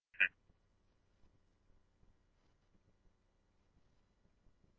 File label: Scottsdale Police scanner; SPD - PD2; H-Scottsdale